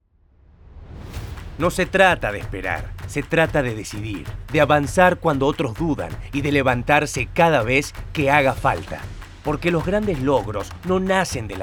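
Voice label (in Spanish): poderoso epico, espanol neutro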